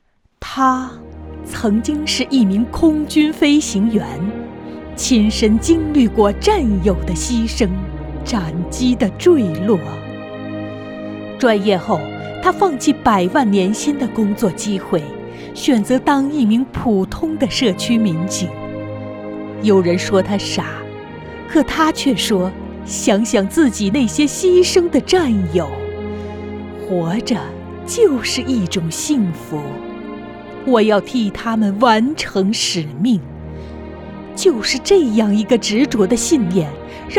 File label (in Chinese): 感人 人物 专题 女声